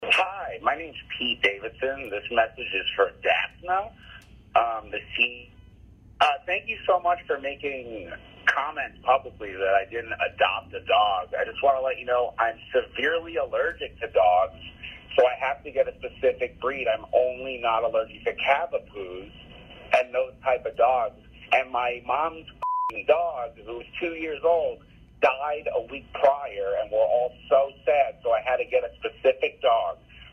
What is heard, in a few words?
voicemail; PETE DAVIDSON; PETA